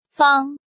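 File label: "Fāng", Chinese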